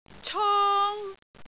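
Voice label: "cong1", Cantonese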